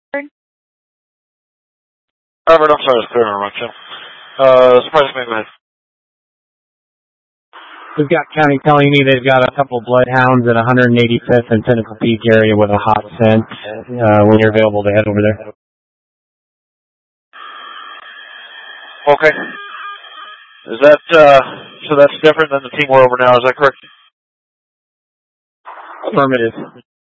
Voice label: Mutual Aid-Intersystem Interop B, scanner